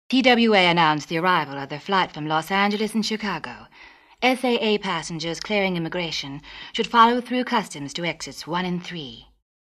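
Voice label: Airport; Flight Announcements; Various Boac Female Flight Announcements.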